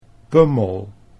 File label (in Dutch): "pummel", Dutch